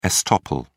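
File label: "estoppel", British English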